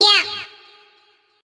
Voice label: "yeah" cartoon, voice